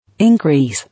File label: "Increase", English